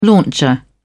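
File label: "launcher", British English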